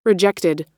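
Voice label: "rejected", US English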